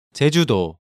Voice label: "Jejudo", Korean; Hangeul